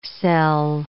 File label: "cell", English